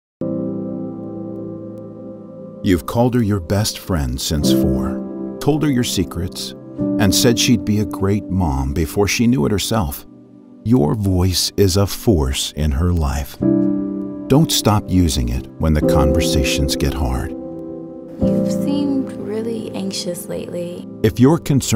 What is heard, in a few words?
TV Commercials; BUITENLANDSE VOICEOVER